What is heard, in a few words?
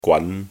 "gwan2", cantonese